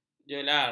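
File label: "jelar", Malay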